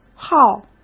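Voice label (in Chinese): "耗", Mandarin Chinese; hsk; chinese-flashcards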